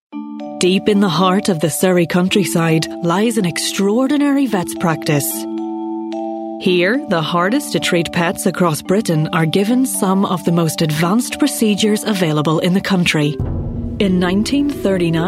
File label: northern irish documentary narrative